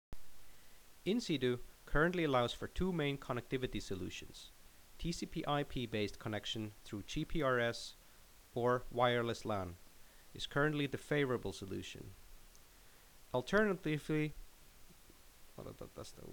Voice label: Narration